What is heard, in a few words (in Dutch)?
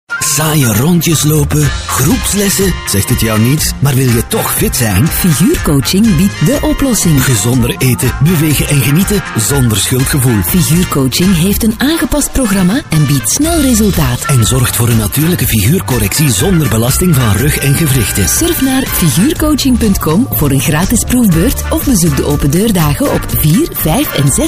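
flemish male commercial; dutch; voice demos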